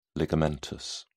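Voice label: "ligamentous", English, British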